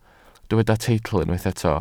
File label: "teitl", Welsh